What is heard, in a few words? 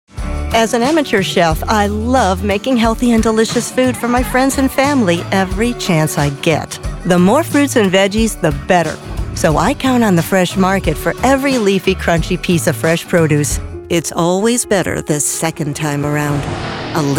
Commercial